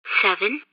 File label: train announcer countdown; Hat in Time